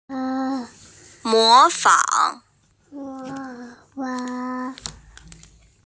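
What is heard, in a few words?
"mo fang", Mandarin Chinese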